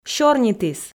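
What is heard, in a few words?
"сёрнитіс", Komi, female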